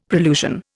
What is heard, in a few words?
"prolusion", Indian; Dictionary